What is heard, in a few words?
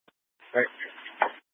Fire Dispatch; H- Scottsdale